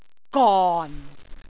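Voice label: "gon4", Cantonese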